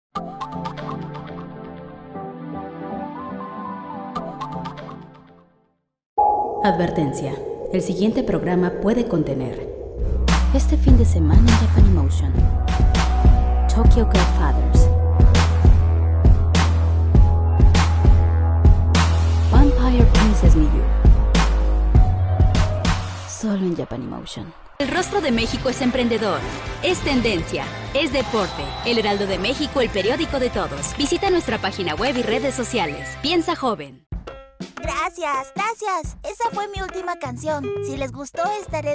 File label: commercial, spanish female voices